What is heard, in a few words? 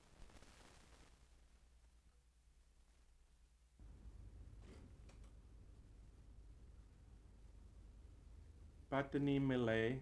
Malay Pattani